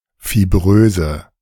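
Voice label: "fibröse", German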